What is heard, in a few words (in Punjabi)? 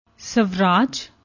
"sawaraj", Punjabi; ਸ੍ਵਰਾਜ